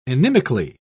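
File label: "inimically", British English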